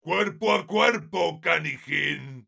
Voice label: heavy melee dare, es